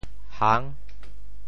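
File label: "hang6", Teochew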